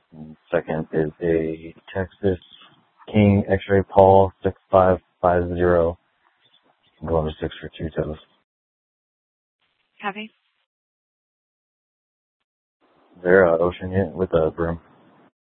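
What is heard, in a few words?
Police Department Dispatch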